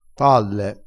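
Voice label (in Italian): "palle", dialettale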